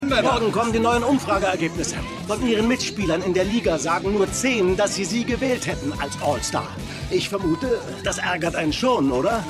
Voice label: Sportreporter; Winning Time

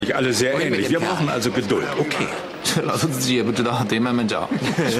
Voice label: Chinese, Stimmproben; Cagney Lacey

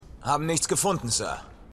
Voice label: Soldat, Stimmproben; Cookes; Revolution

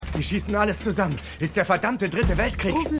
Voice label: Restaurantmann; Fargo

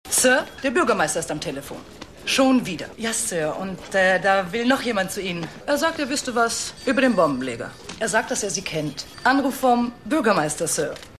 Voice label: Polizistin

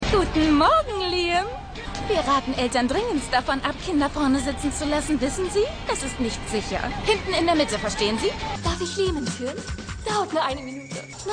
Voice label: Lehrerin, Stimmproben; Shameless

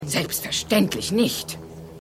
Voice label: Frau; Frau Mit Kokain; AirPlane

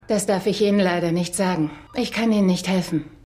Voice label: Polizistin; Luke Cage

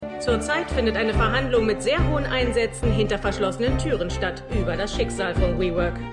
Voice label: Nachrichtensprecherin; CNNC; WeCrashed

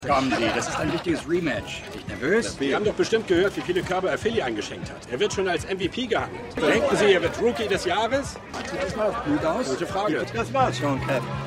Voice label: Sportreporter; Winning Time

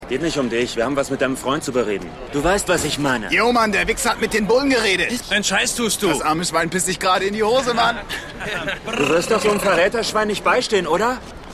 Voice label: Jugendlicher; The Wire

